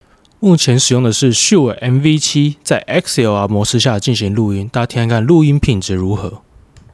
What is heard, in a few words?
SHURE MV7 XLR